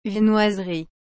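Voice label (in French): "viennoiserie", French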